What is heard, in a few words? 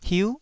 "hiu9", taiwanese